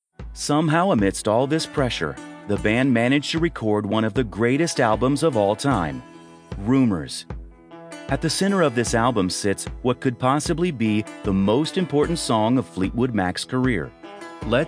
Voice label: English Voice Over